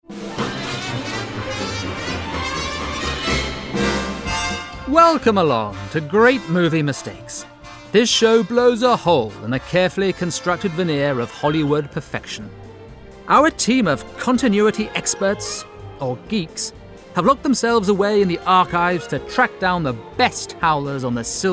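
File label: Docu - pastiche read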